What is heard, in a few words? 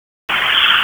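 Wake Forest Police Dispatch, p25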